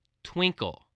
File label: "twinkle", English spelling voice